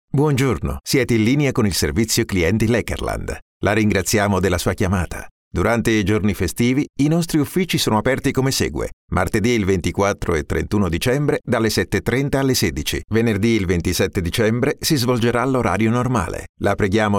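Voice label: italian interactive voice response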